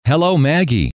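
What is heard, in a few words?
hello, male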